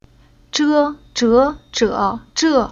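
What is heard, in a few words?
"Zhe", chinese syllable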